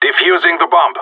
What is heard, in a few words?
defusing bomb, radio; GSG9